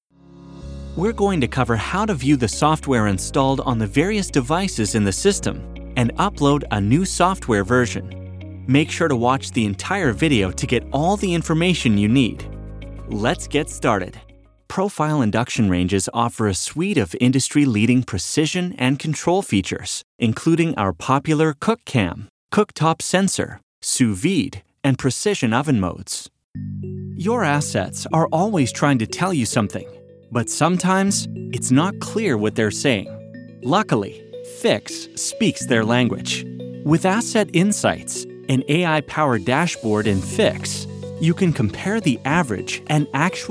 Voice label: men elearning; Youthful, Relatable, Guy-Next-Door. Trustworthy, Cool tech guy. Professional, Conversational, and Adaptable.